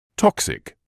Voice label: "toxic", medical English